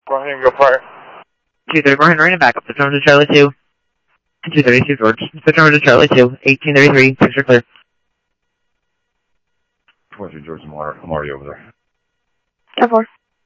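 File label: police department, scanner audio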